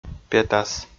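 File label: "петас", Komi, male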